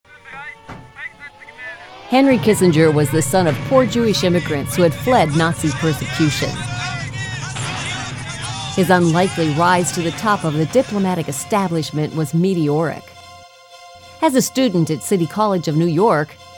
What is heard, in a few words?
English American documentaries